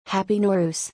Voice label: "Happy Nowruz", English